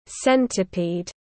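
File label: "centipede", English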